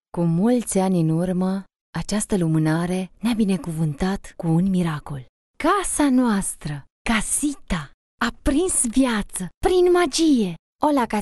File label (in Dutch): trailler animatie; encanto